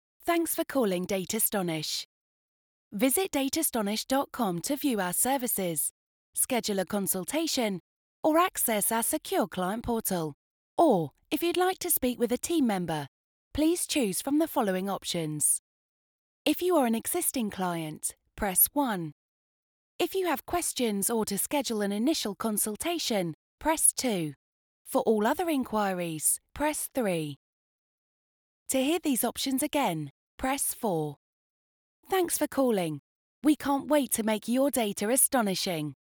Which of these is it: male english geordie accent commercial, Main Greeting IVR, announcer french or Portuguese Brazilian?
Main Greeting IVR